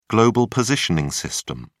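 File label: "global positioning system", British English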